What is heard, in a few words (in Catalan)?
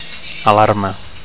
"alarma", Catalan; (f) alarm